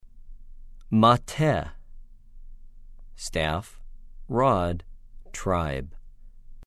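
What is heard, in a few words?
"מַטֶּה", Hebrew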